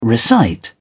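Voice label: "recite", British English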